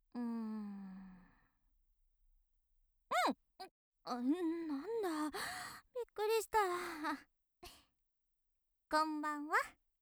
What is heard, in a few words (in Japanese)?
元気JK こんばんわ